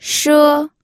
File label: "she1", pinyin